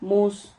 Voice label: "mus", Silabas

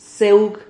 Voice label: "ceug", Silabas

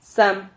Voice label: "sam", Silabas

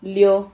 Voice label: "lio", Silabas

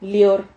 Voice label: "lior", Silabas

